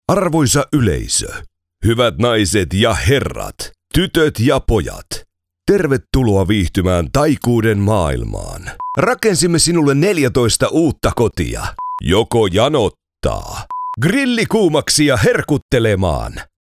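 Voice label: Finnish; generico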